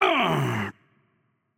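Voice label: Russian hurt heavy voice; bloom minigame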